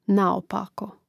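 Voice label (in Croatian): "naopako", Hrvatski; mreznik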